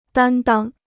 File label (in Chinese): "担当", Chinese